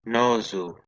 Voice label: "nosu", Anglo Saxon